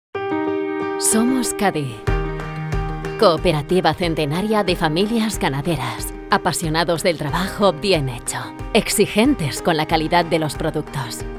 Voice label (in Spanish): Spanish SPOT Anuncio voz elegante tv y youtube